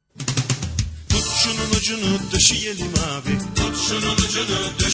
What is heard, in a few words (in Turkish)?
reklam filmi; doseyelim abi